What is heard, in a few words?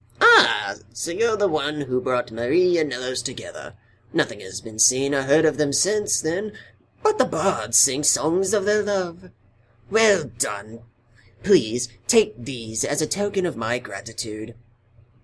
breton normal, breton voice auditions